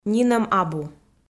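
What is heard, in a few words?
"нинӧм абу", Komi, female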